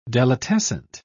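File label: "delitescent", British English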